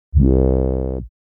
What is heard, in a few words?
Comic voice